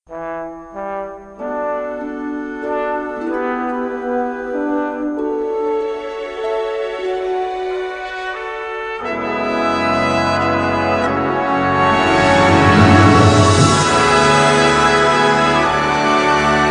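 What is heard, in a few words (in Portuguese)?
aniversário, feminina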